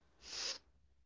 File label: voice message